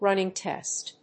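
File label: "running test", English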